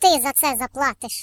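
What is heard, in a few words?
"Just you wait", British English; hedgewars; Default uk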